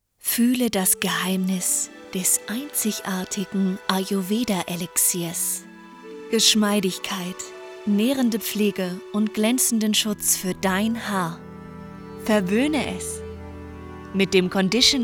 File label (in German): Werbung